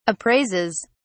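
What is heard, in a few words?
"appraises", British English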